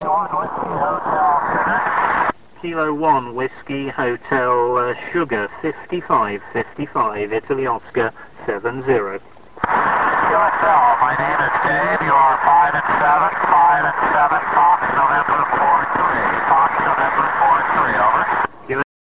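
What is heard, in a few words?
amateur radio contact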